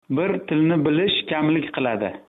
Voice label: one language uzbek